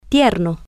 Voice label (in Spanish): "tier-no", Spanish